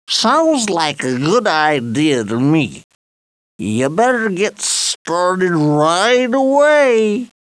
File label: Drunken Talk; Monsterhunt